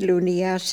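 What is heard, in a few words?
"Loonias", pronunciations; Fourth Way; Beelzebub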